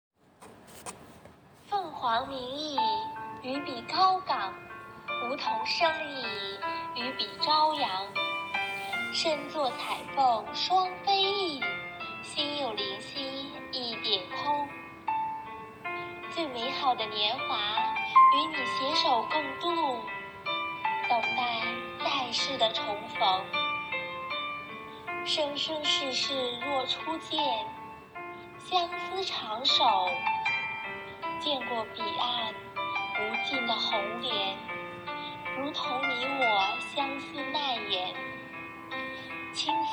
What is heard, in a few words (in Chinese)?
游戏 配音